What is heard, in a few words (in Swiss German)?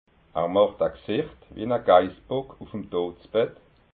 "gsicht", alsace